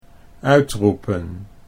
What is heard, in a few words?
"uitroepen", Dutch